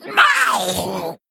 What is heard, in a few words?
Male Kobold Death